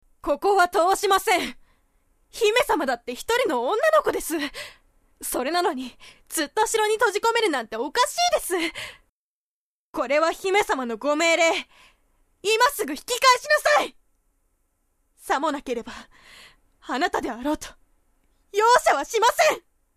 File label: woman; 大人; 勇ましいお姉さん